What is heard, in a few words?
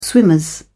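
"swimmers", British English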